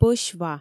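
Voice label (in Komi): пӧсь ва, Komi, female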